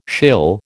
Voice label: "shill", American